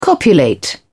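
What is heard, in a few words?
"copulate", British English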